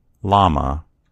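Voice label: "lama", American English; gcompris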